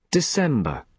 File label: "december" UK